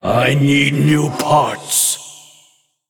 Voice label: Male Ethereal Attack

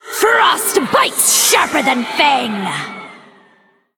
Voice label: Female Elemental Play

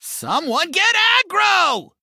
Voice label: Male Goblin Attack

